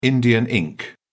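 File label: "indian ink", British English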